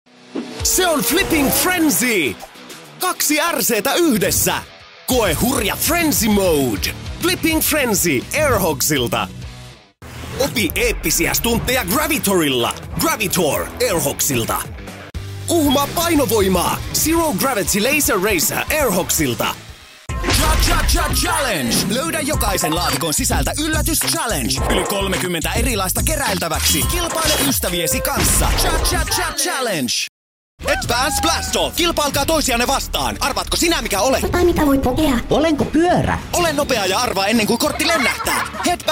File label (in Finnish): voice over aaninayte